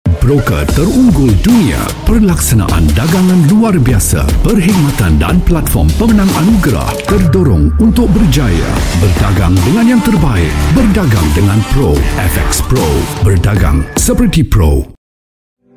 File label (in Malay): Malay